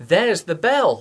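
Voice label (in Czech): "zvoni", Czech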